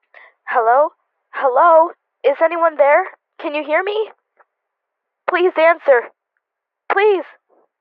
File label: Hello Hello Creepy Phone Call